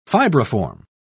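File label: "fibriform", English, British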